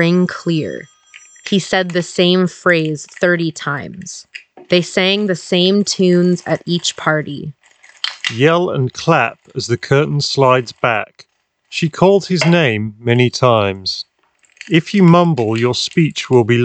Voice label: Office microphone sample; Drop EPOS PC38X

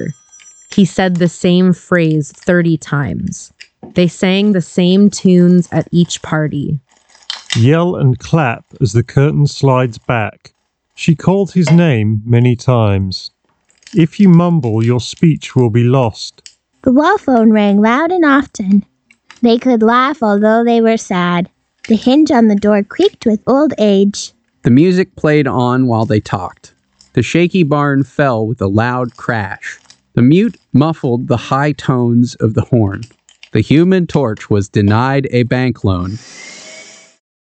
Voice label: Office microphone sample; Beyerdynamic MMX 300 Pro

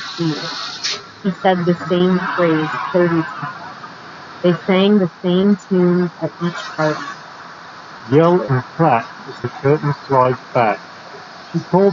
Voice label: Street microphone sample; TOZO NC9

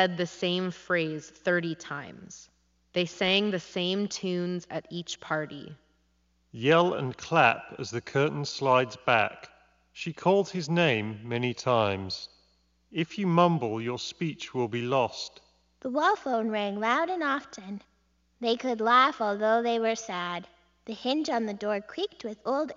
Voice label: Turtle Beach Stealth Pro Reverb microphone sample

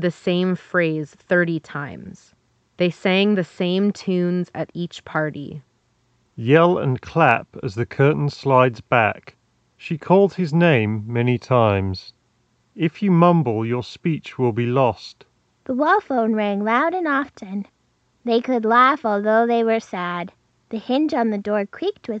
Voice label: HyperX Cloud Earbuds II Ideal microphone sample

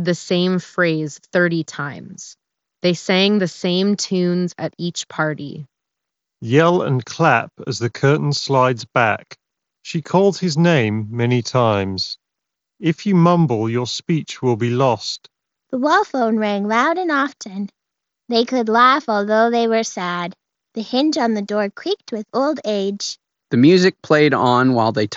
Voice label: Alienware Pro Ideal microphone sample; wireless headset

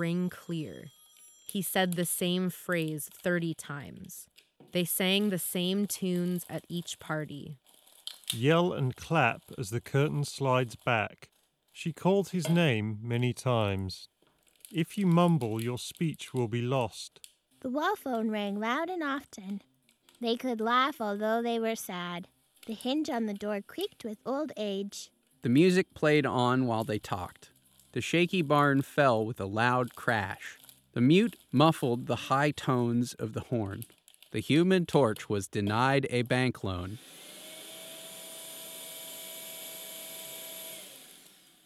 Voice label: Beyerdynamic MMX150 Office microphone sample

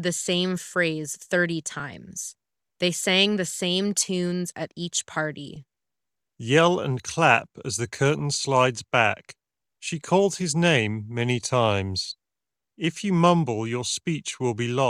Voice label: flat eq ideal; SteelSeries Arctis Nova Elite

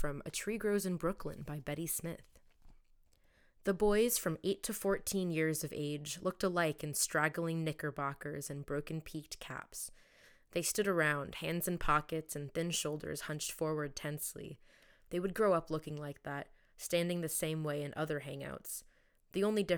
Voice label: AT2020 speaking

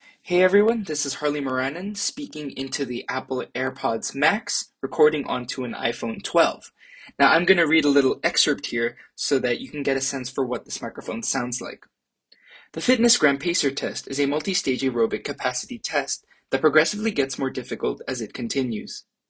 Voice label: Apple AirPods Max Mic Sample iPhone 12

